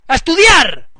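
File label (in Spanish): "a estudiar", español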